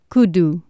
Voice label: "cudu", Anglo Saxon